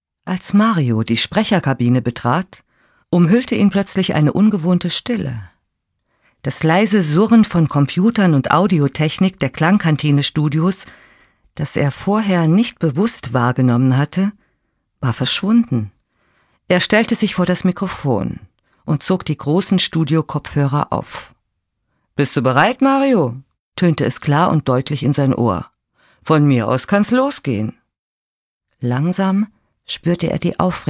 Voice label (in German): narrativ